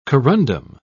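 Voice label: "corundum", British English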